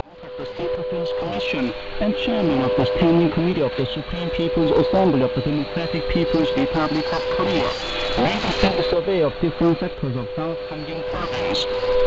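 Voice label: Shannon VOLMET English USB; 13264,0; IRL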